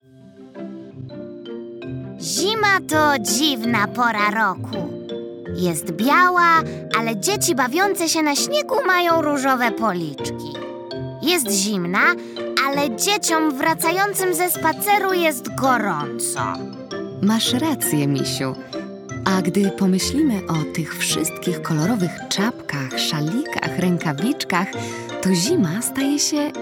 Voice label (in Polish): narracja; czasopismo mis; zima; rozmowa